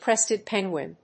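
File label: "crested penguin", English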